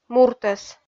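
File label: "муртӧс", Komi, female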